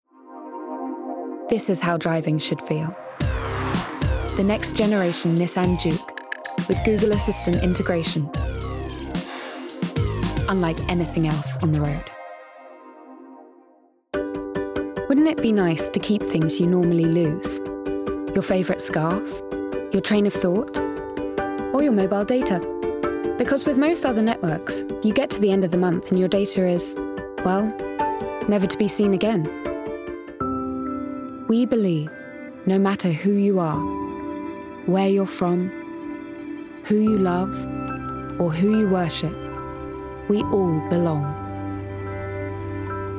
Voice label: Commercials Montage; Actress